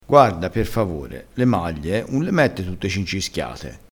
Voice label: "cincischiato", crespinese

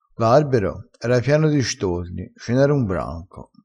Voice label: "un branco", vocabolario dialettale

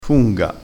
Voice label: "funga", crespinese

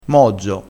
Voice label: "mozzo", crespinese, vocabolario dialettale

